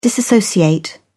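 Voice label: "disassociate", British English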